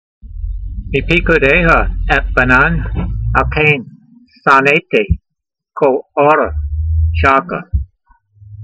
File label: voice, hebrew language; Psalm 119:104; meepeekoodeyha etbonan, al-kayn sa'naytee kol-o'rah shaker